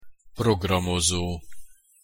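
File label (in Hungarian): "programozo", Hungarian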